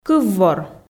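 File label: "кыввор", Komi, female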